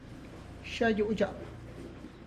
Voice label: How are you young lady, Tlacochahuaya Zapotec; (Spanish) Cómo estás señorita?; xa yu' dxa'p?